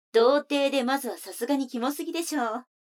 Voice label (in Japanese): 女の子台詞, ASMR